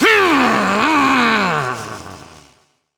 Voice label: Frustration; Evil Twin A; Warframe; Entrati Doppelganger; Battle Barks

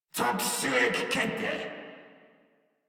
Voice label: Patrol Crewmen en

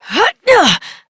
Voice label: Horse Mount Adult, Dialog; Duviri

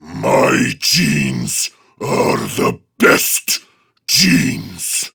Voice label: Patrol Prime Grineer Male; Tau; Battle Barks

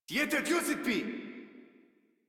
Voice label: Surprised Modular Corpus Male; Dialog; Barks; Battle; Warframe

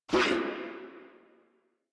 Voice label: Pain Crewmen, en

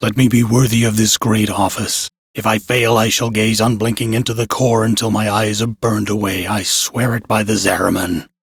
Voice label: Crew Idle, Male, en; Warframe; Railjack Crew; Arbiters Hexis

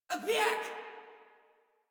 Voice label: Attack Female; Warframe; Modular Corpus Female

